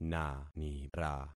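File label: "nanira", concatenated syllables; Affixing experiment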